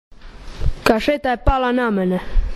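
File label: "kaseta", Croatian dialect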